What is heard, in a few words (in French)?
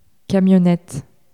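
"camionnette", French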